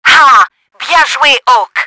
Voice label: VICTORY, french; Battle Engine Aquila

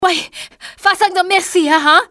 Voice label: whats happen, male; XBOX; civ

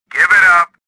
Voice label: suspicious; swamp

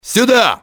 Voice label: over here, russian soldier; XBOX

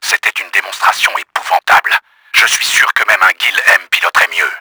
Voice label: ANGRY, french; KRAMER; Battle Engine Aquila

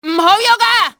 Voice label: stop, cantonese; XBOX; enemy

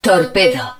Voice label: torpedo launcher, Spanish

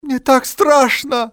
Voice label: "scared", male; XBOX; civ

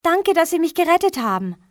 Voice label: thank, civ fmale; XBOX

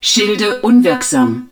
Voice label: Shield Depleted, german

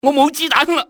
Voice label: out ammo, cantonese male; XBOX; enemy

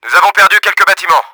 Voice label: FEW, french; Battle Engine Aquila; XBOX

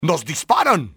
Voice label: under fire, spanish soldier; enemy; XBOX

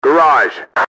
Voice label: garage, enemy english team orders; XBOX

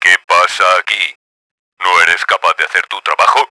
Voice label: angry, Spanish; kramer; Battle Engine Aquila; XBOX

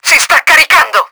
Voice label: POWER UP, Italian; Battle Engine Aquila; XBOX

